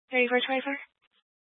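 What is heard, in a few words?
Incident Tactical 3, Scanner audio recording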